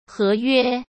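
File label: "合约", Mandarin Chinese; hsk; chinese-flashcards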